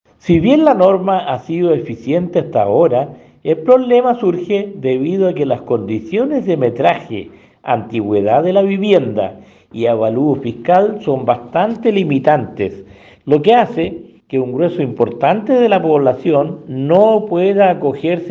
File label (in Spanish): cuña; BERGER